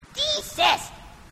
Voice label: grunt; h2dialogue; grunt crazy

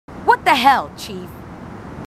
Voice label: marines female, marine tough

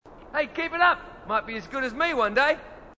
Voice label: marine, aussie; h2dialogue

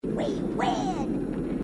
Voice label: we win, grunts

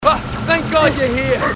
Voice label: marine aussie; h3dialogue

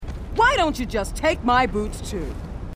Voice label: female marine

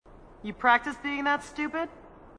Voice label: female marine, sassy

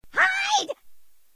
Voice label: hide, grunts

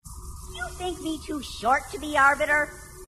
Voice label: grunt; h2dialogue; grunt crazy

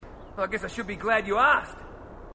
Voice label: marine, aussie; h2dialogue

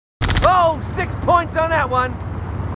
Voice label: marines, aussie; h2dialogue

